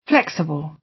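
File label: "flexible", British English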